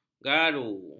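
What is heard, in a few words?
"garu", Malay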